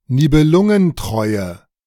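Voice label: "Nibelungentreue", German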